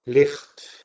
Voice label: "liht", Middle English